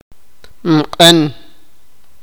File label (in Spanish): "caliente", sipakapense